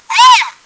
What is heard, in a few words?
whoo, french; csco; csgo; bot